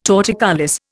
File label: "torticollis", Indian